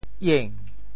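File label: "ieng2", Teochew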